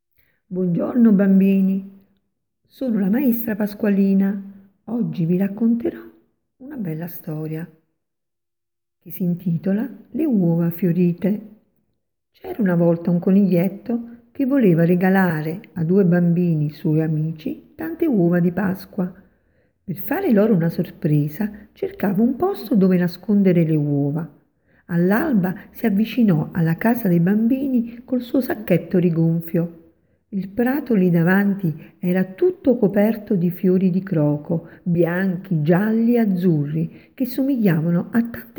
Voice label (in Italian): Vocale racconto